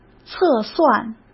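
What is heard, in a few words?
"测算", Mandarin Chinese; hsk; chinese-flashcards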